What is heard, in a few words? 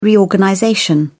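"reorganization", English, British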